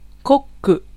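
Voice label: Japanese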